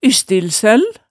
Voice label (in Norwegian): "ystilsøll", hemsedalsmaalet, tale